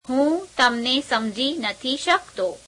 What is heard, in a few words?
gujarati, I dont understand you; [negation + verb]